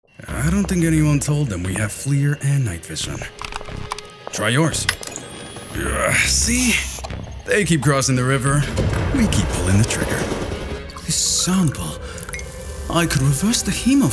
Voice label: interactive gaming, voiceover